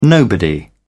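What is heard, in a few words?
"nobody", British English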